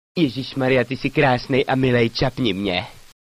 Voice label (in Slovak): sms hlásky cz